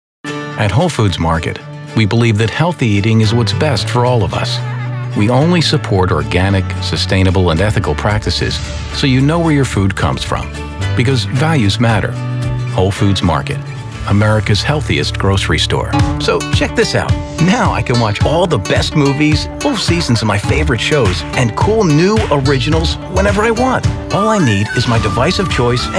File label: Commercial